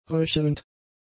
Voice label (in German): "röchelnd", German; adjectives